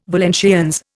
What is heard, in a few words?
"Valenciennes", Indian